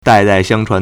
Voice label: "dai4 dai4 xiang1 chuan2", Chinese